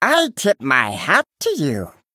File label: Male Murloc Well Played